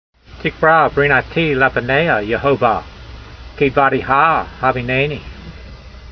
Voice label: voice, hebrew language; Psalm 119:169; teekrav reenatee lefaneyha yehovah, keedva'r(d)e'ha haveenaynee